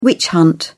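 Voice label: "witch hunt", English, British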